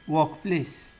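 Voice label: "workplace", Nigerian Pidgin, male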